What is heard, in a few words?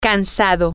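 tired, Spanish, female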